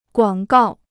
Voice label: "广告", Chinese; guǎng gào; to advertise; a commercial

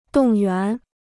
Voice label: "动员", Chinese

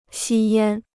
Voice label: "吸烟", Chinese

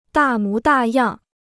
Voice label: "大模大样", Chinese

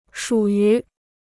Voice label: "属于", Chinese

